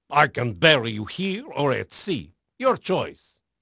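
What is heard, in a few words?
Portuguese male, voice; Pirates Of The Caribbean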